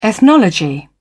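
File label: "ethnology", British English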